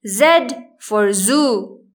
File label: zoo, english; grade1; identifying letters